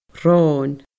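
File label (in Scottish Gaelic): "ròn", Scottish Gaelic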